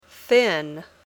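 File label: "thin", English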